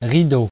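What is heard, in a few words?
"rideau", French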